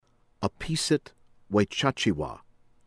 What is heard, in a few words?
En-us Abhisit Vejjajiva pronunciation; from Thailand; Voice of America